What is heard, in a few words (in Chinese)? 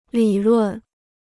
"理论", Chinese